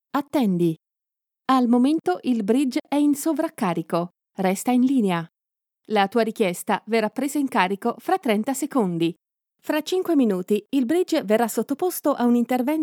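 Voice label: Italian female IVR; ivr voice prompts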